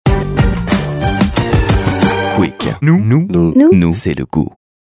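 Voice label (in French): droit, Comédien voix off, publicité; Quick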